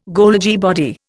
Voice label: "Golgi body", Indian